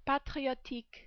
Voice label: "patriotique", French